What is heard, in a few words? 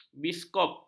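"biskop", Malay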